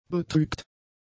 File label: "betrügt", German; adjectives